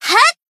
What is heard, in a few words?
Battle Shout; Serika